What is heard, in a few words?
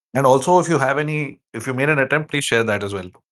voice message